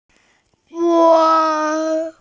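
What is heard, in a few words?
"weng1", Mandarin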